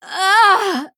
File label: Female Night Elf Death